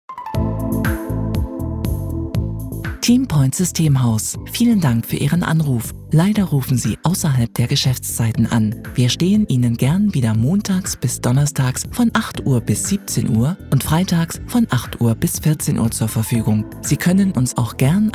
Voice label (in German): Zentrale neutral Nachtbetrieb